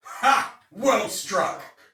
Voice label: See Ally Hit, Soldier Male; Dialog; Barks; Battle; Sinecure

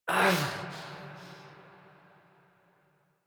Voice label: Operator Voice; Warframe; Apostasy Teaser; Chamber; en

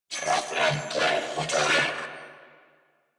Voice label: Attack Grineer Male; New War; Narmer

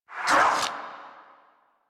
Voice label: Pain; Warframe; Zariman; Zariman Wraith

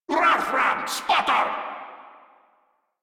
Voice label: Player Spotted, Grineer Male; Warframe

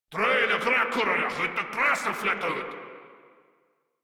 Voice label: Attack, Grineer Male; Warframe

